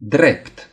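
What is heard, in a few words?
"straight", Romanian; gcompris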